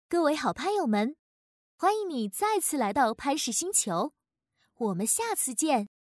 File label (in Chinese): 播报乐园播报